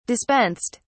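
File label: "dispensed", English, British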